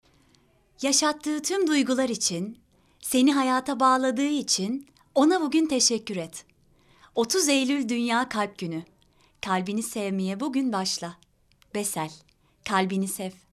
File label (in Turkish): reklam